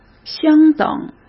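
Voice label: "相等", Mandarin Chinese; chinese-flashcards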